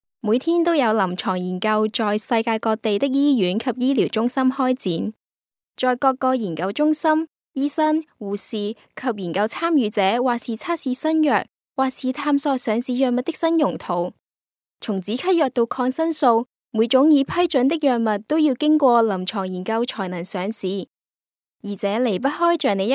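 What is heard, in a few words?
Chinese, female, narration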